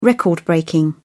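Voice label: "record breaking", British English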